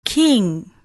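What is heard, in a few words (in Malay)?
"king", Malay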